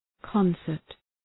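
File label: "concert", English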